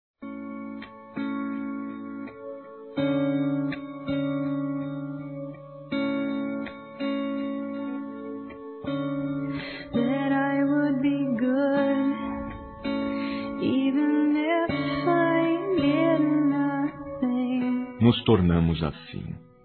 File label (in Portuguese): AMIZADE VIRTUAL, Telemensagens, Masc